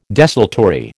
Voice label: "desultory", American

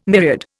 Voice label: "myriad", Indian

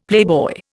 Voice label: "playboy", Indian; Dictionary

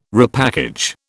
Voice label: "repackage", British

